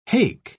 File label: "hake", pronounce